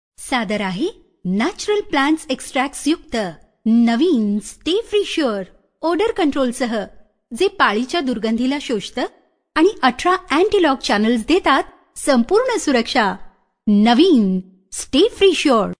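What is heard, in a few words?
Marathi general; GoLocalise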